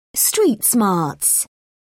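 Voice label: "street smarts", English, British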